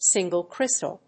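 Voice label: "Single crystal", English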